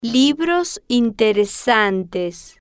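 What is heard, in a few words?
"libros interesantes", Spanish, female, slow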